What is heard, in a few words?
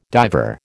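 "diver", American English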